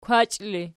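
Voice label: "kwachi", ergative, Archi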